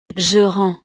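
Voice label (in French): "je rends", French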